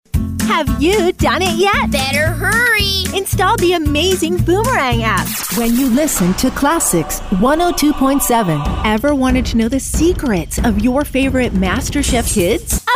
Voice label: imaging